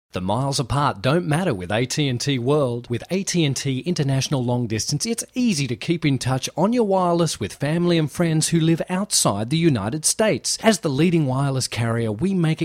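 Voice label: English Australian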